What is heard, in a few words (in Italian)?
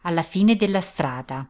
Road End, Italian, female